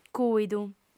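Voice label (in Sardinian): "cùidu", female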